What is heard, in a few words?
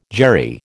"Jerry", American